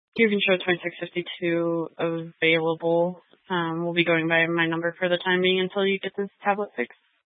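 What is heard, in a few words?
Sheriff Dispatch, Scanner audio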